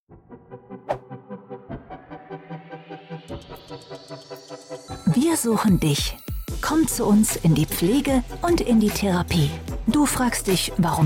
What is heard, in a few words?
recruiting commercial